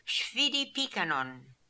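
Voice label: "Shvidi Pikan On", pronunciations; Fourth Way; Beelzebub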